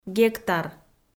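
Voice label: "гектар", Komi, female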